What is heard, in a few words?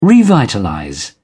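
"revitalize", British English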